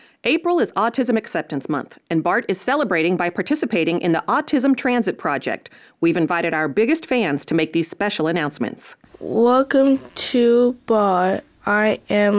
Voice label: Announcements recorded by local youth with autism playing in stations; participants' announcements